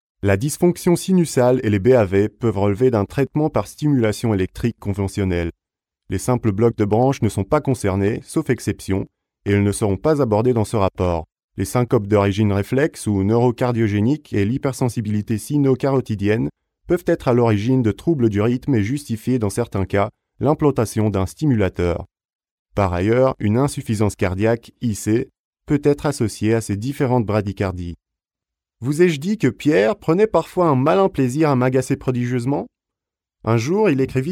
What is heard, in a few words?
FRA M MULTIMEDIA